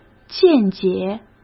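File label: "见解", Mandarin Chinese; hsk; chinese flashcards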